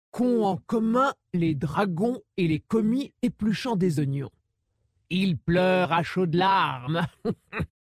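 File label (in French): French Female Blague; Dracthyr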